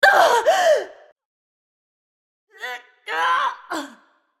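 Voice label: dying, English; Leona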